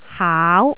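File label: "haau", Cantonese, tone 2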